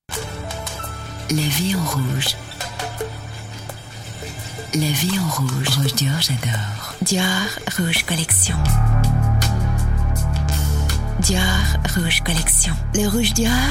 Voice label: sensuelle brillante; Dior Collection

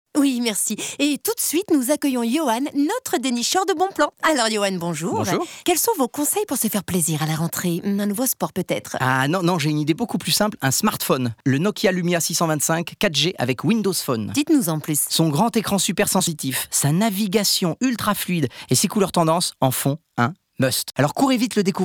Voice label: chronnique enthousiaste mention, voix off; NokiaOrange; telephonie

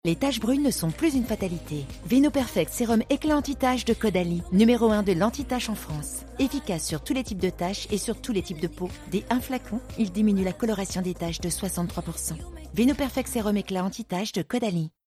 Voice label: Pub TV promo douce, comédienne voix off; Caudalie

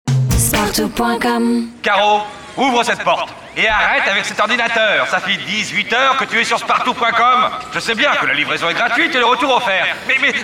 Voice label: Signature enthousiaste, voix off; pub radio

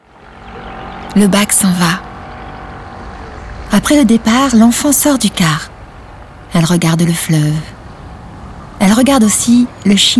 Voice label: solaire, voix off comédienne; L'Amant de Duras

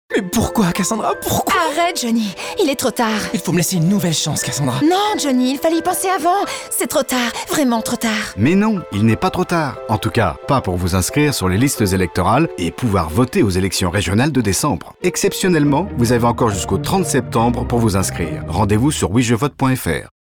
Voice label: Spot Radio comédie sitcom; Elections Régionales

